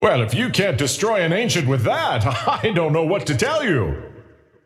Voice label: scepter announce in game; dota

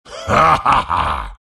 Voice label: happy; beastmaster

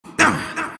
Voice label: monkey crown pain; dota; monkey king

